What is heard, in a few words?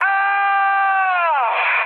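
Italian damaged pilot mood high, speech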